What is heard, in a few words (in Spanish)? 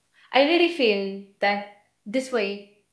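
Voice Acting es F, Spanish, female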